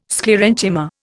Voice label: "Sclerenchyma", Indian

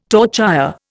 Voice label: "torchère", Indian

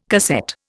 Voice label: "cassette", Indian

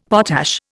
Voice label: "potash", Indian; Dictionary

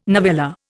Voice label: "novella", Indian

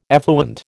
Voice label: "effluent", American

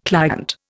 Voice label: "client", Indian

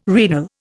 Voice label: "renal", Indian

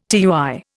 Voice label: "tui", Indian

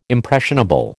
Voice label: "impressionable", American

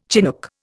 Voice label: "chinook", Indian

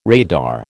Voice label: "radar", American

